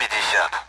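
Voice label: Speech Sound Russian Common AWACS Numbers; DCS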